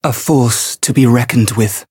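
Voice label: Voice encounter fear; clarte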